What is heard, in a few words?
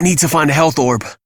Low Health Operator Voice, en; Operator Mission